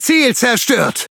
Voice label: Speech Pilots Fighter Tango down, DE; World Of Warships

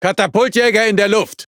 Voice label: Speech Cat Fight Launch, DE; ConsPilots

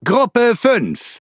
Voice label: Speech Pilots Fighter ID, DE; World Of Warships

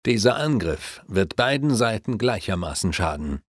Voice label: Speech, German, Advisors; Civ5; Attack Damage Both Sides Evenly

